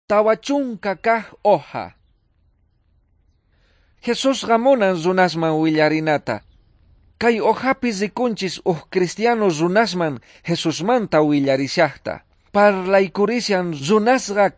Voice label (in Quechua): quechua bolivian cochabamba; good news